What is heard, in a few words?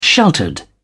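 "sheltered", British English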